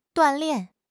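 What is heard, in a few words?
"duan4 lian4", Mandarin Chinese; HSK3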